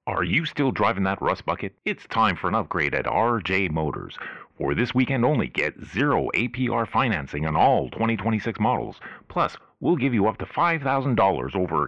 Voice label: Car Sales Ad